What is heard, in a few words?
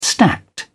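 "stacked", British English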